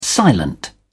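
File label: "silent", British English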